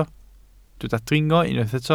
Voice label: "dringo", Welsh

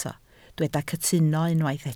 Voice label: "cytuno", Welsh

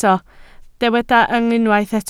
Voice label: "yng", Welsh

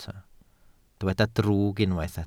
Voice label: "drwg", Welsh

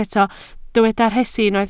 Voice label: "rhesi", Welsh

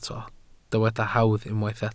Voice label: "hawdd", Welsh

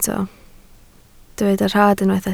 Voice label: "rhad", Welsh

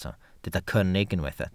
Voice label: "cynnig", welsh

